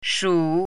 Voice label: "shu3", Chinese pinyin